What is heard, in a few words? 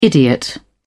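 "idiot", English, British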